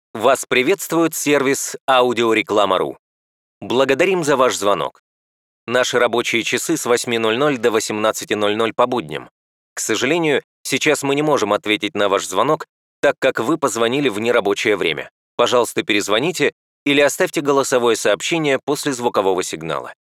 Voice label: IVR